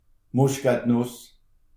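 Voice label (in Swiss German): "muschketnuss", Alsatian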